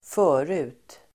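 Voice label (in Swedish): "förut", swedish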